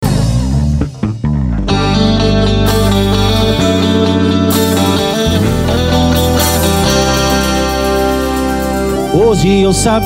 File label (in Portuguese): locutor a voz magnifica